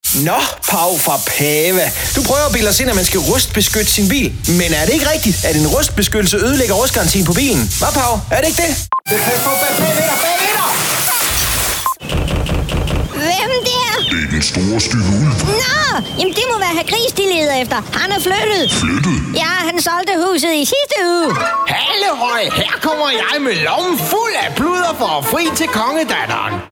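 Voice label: Danish characters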